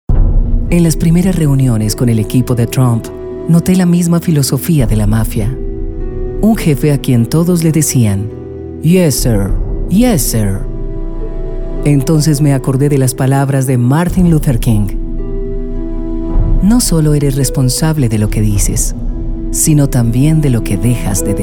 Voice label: spanish narration; Colombian Spanish Speaker. Her warm lower register makes you lean forward to listen.